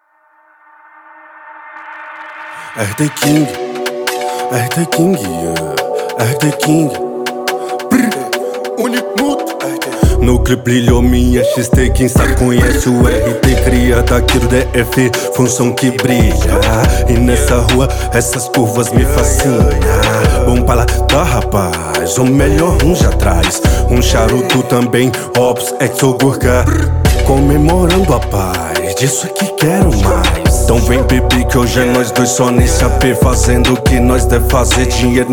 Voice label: rap trap

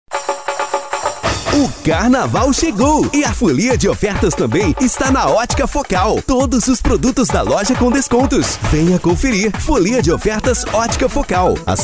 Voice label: locutor; Puffy Publicidade e Propaganda

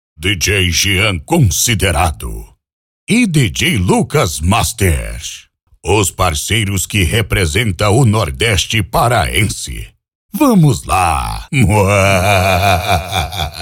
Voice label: voz do som automotivo

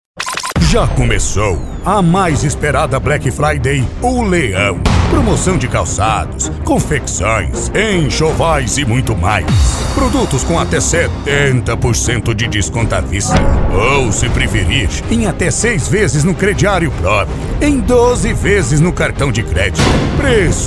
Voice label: locutor off

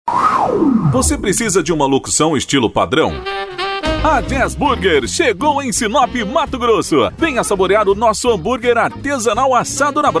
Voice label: Locutor

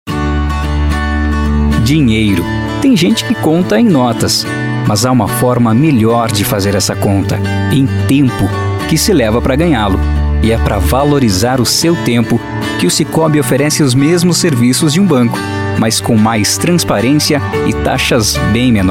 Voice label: voz locutor

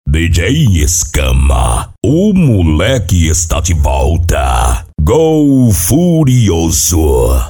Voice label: voz impacto djs avulso; Studio e Produtora Valentina; Studio HC

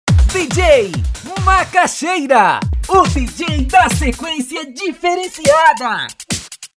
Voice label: voz locutor

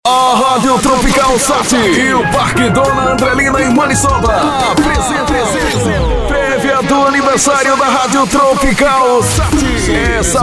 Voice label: previa niver radio, locutor

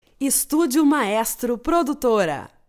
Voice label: Locução

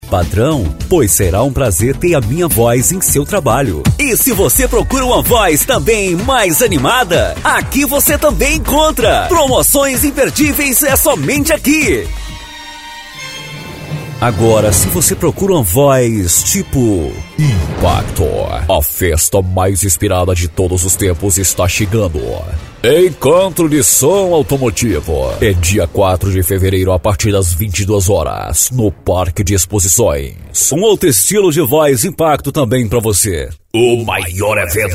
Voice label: locutor

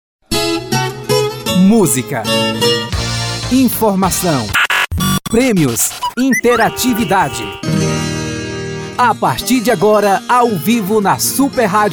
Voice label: voz locutor vinhetas radio am

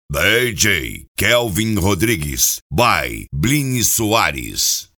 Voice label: voz locutor

